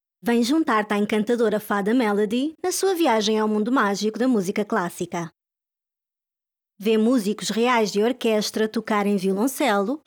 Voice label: portuguese f narration; voice demos